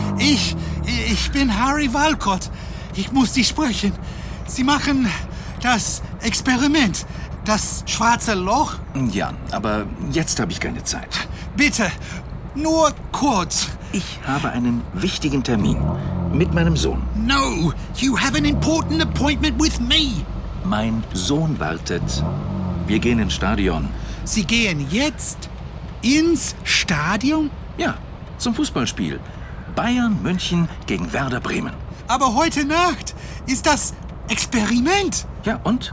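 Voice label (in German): Voice Hörspiel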